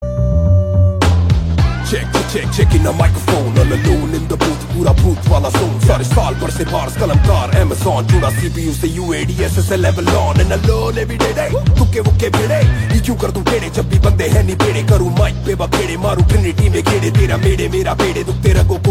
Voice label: Microphone Check